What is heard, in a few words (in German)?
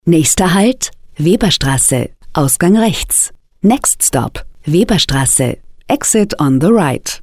haltestellenansage weiblich